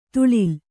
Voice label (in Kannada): "ತುಳಿಲ್", Kannada